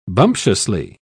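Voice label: "bumptiously", British English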